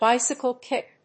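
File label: "bicycle kick", English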